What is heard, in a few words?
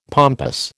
"pompous", American